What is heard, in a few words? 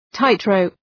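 "tightrope", English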